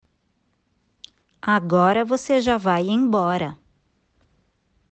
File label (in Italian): Portoghese